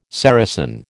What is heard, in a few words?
"Saracen", American